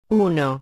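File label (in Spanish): "Uno", Spanish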